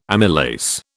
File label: "amylase", British